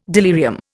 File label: "delirium", Indian